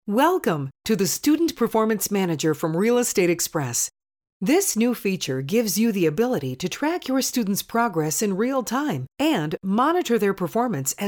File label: Tutorial; StudentMgr